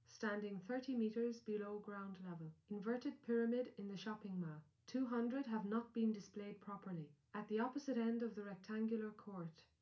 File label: female, live rec